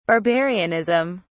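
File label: "barbarianism", British English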